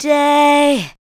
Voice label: SPOKEN VOX, ADLIPS